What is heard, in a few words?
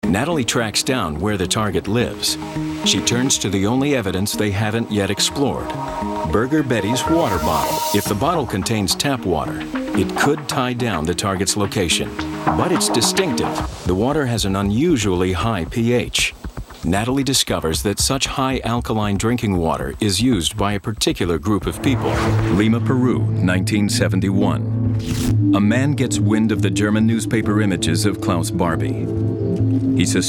narration men in show